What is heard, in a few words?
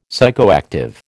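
"psychoactive", American English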